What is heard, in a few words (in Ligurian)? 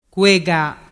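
"cuega", Ligurian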